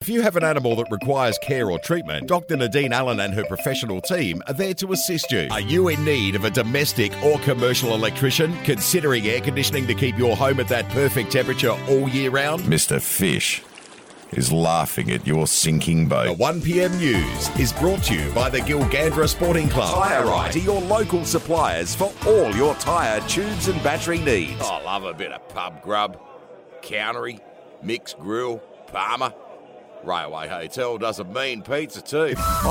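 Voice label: English Australian, general; GoLocalise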